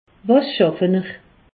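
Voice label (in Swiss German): "schaffe", Alsatian; medecine; quelle profession exercez vous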